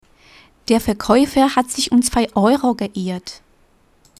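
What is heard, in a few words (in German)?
"der Verkäufer", German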